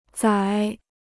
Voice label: "宰", Chinese

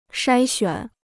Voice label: "筛选", Chinese

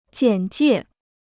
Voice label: "简介", Chinese

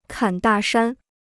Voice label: "侃大山", Chinese

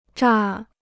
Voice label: "榨", Chinese

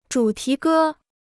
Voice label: "主题歌", Chinese

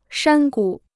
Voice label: "山谷", Chinese

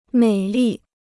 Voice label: "美丽", Chinese

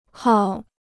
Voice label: "号", Chinese

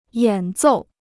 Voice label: "演奏", Chinese

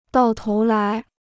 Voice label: "到头来", Chinese

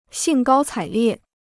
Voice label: "兴高采烈", Chinese

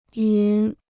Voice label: "云", Chinese

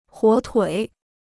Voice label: "火腿", Chinese

